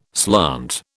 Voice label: "slant", British

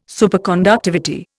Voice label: "Superconductivity", Indian

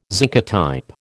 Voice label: "zincotype", American

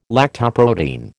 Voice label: "lactoprotein", American

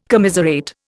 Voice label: "commiserate", Indian

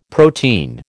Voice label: "protein", American

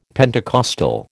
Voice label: "Pentecostal", American

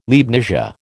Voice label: "Leibnizia", American

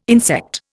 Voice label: "insect", Indian

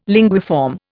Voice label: "linguiform", Indian

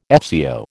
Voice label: "FCO", American

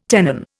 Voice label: "tenon", Indian

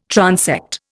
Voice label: "transect", Indian

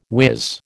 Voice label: "whiz", American

